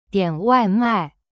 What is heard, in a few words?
"点外卖 dian wai mai", Chinese; cyberchinese